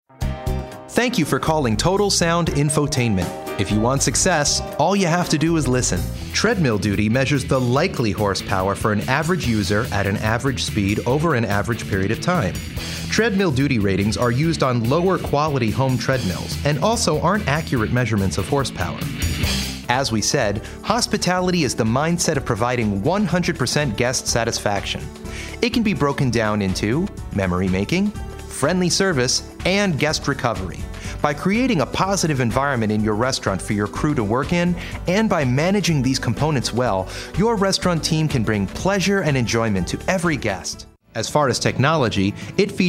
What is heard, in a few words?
Corporate